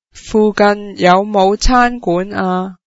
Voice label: where is the nearest restaurant, cantonese

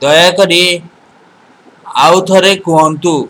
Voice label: say again odia

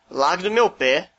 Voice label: leave me alone, portuguese br

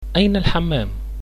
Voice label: "toilet", Arabic

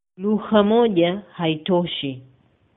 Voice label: one language, swahili

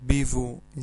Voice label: I live in, sardinian

